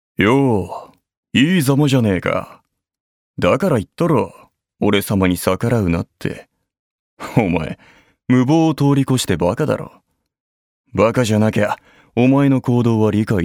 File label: m cool; seikaku